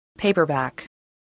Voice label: "paperback", English voice

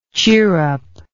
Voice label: "chirrupper", English

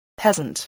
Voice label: "peasant", English, voice

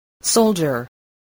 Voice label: "soldier", English voice

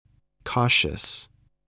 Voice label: "cautious", spelling

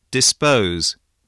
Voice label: "dispose", spelling

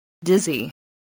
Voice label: "dizzy", spelling

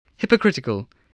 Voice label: "hypocritical", voice spelling

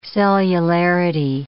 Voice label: "cellularity", English

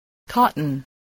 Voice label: "cotton", voice spelling

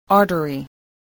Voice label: "artery", English

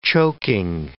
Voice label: "choking", English

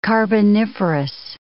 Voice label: "carboniferous", English

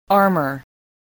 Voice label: "armour", English

